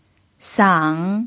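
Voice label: "sang" Mandarin Chinese, third tone, pinyin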